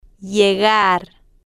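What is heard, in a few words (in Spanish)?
"llegar", spanish, female, slow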